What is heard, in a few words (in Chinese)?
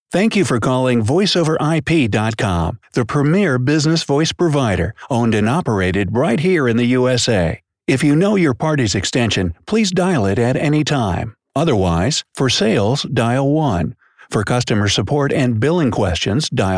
外籍配音美式男 电话语音 磁性